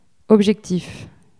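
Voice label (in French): "objectif", French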